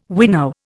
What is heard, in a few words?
"winnow", Indian